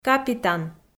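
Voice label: "капитан", Komi, female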